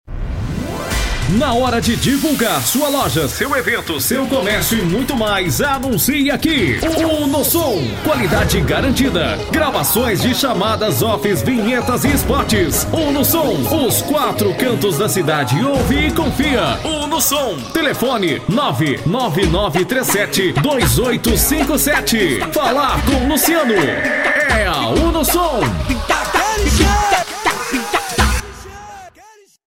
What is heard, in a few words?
spot; uno som